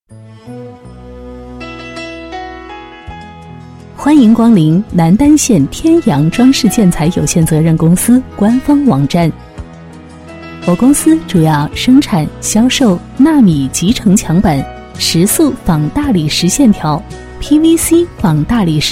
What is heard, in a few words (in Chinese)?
女 宣传 温馨 亲和 落落大方, 国语女声配音; 天洋装饰官网